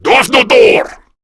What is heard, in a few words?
Reload, Male; Warframe; Grineer Male B; Battle Barks